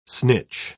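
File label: "snitch", English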